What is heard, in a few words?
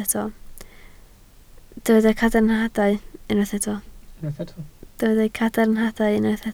"cadarnhadau", Welsh